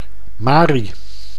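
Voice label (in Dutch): "Mari", Dutch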